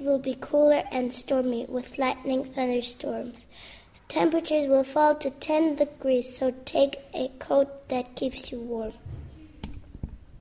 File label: weather forecast; englisch